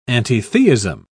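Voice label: "antitheism", British English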